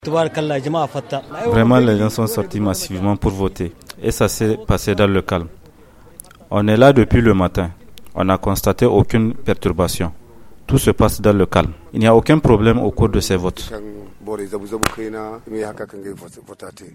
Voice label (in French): VOX POP ELECTEUR; niger elections locales plutot calmes apaisees; Hier dimanche 13 décembre 2020, se sont déroulées les élections locales sur toute l’étendu